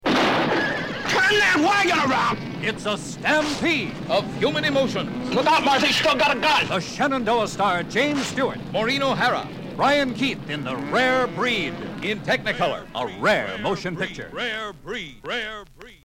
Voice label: Radio Spot; Rare Breed